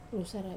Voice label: "rusara", story, Wamesa